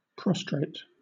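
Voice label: "prostrate", British English; pronunciations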